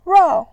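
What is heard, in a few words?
"rao", Mandarin Chinese, fourth tone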